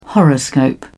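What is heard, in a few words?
"horoscope", British English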